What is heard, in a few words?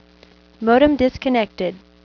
Modem Disconnected (Female)